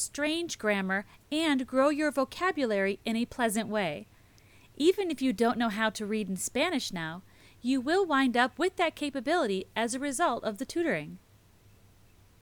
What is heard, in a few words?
Latina Accent Reduction; for latina mothers